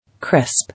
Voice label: "Crisp", English